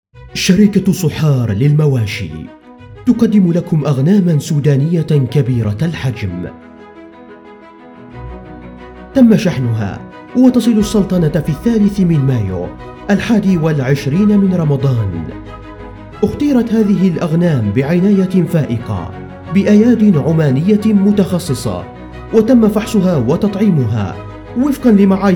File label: voice-over